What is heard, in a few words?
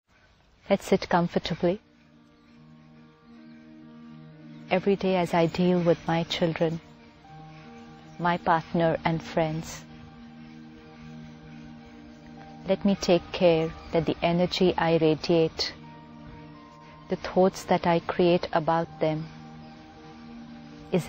meditation going beyond criticizing; sis shivani